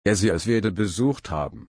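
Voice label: "besuchen", German, slow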